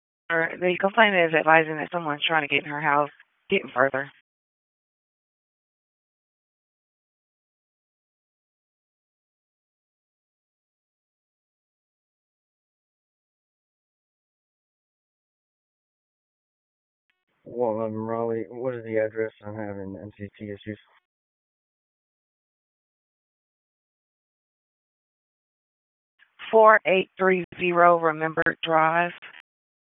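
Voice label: Police Southwest District Dispatch, p25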